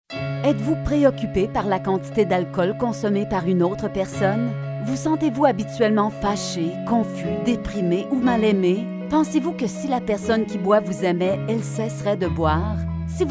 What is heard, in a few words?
FRENCH questions; AlAnon